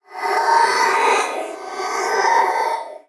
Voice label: flange female